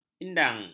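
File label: "indang", Malay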